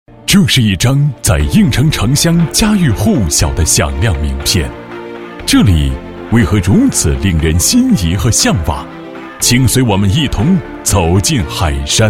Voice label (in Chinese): 男-企业-某投资集团宣传, 配音案例; 武汉某投资集团宣传